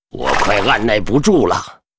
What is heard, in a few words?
yes, zh; Hero archmage